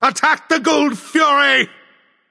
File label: vox attack; smite; ra; voicepack